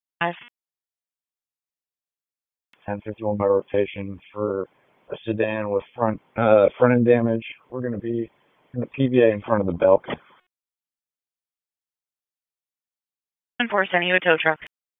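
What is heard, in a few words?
Police District Dispatch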